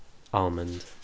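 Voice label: "almond", British English, male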